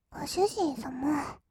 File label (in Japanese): ご主人様, kawaii voice; ASMR; 二人称; 1200 kawaii voice!!